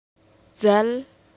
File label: dzal, hijaiyah